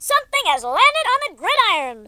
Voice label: "drop", US Sports; speech